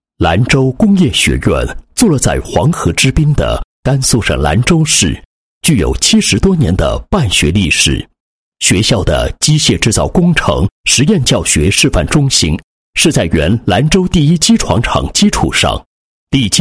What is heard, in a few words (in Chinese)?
男 学校 申报; 申报国家级机械制造工程实验教学示范中心